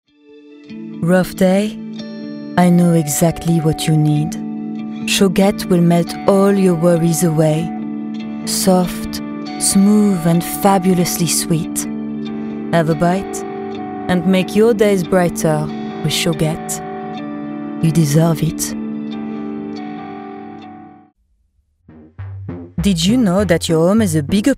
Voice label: accented English French

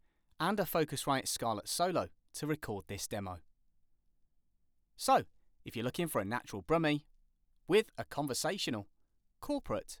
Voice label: Home Studio Read

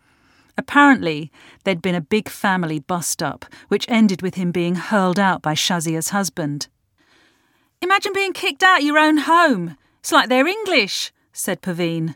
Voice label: audiobook

